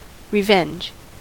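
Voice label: "revenge", American English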